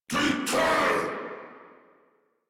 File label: Attack, en; Warframe; Corpus Amalgam Pilot